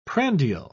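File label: "prandial", English, British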